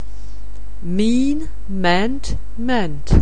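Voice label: "mean", English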